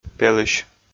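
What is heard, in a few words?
"пелысь", Komi, male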